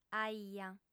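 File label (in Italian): "aia", sarda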